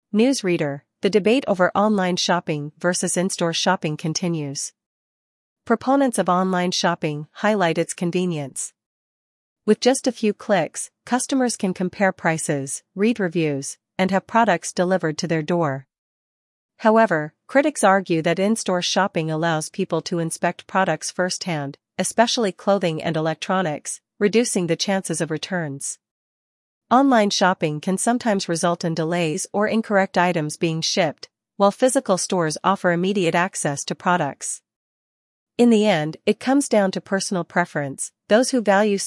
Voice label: conversation; celpip practice test; listening practice test